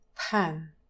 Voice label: "pan", English pronunciation, trap vowel